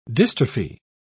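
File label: "dystrophy", British English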